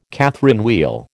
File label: "Catherine wheel", American